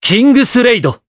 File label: Vox, jp; Esker; Kingsraid